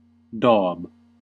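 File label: "daub", US English; pronunciations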